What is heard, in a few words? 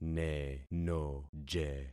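"nenoje", concatenated syllables; Affixing experiment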